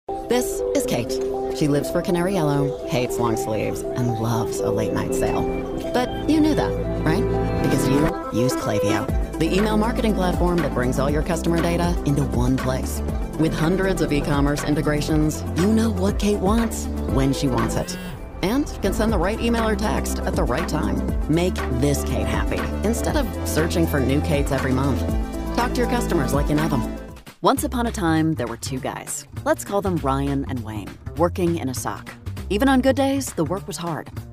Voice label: english american explainer videos